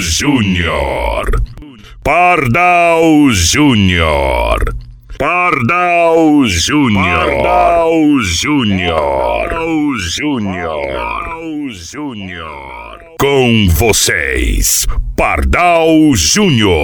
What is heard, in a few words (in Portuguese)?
Locutor Vinhetas Rodeio; Pardal